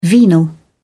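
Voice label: "venal", British English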